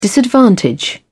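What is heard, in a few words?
"disadvantage", British English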